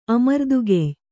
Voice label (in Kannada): "ಅಮರ್ದುಗೆಯ್ಯ", Kannada